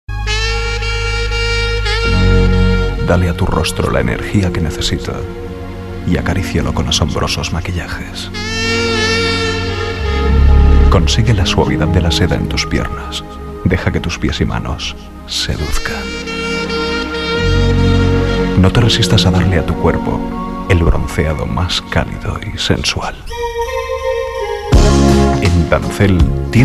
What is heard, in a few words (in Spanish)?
Publicidad Radio voces comerciales musica; Dancel